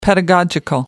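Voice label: "pedagogical", English, British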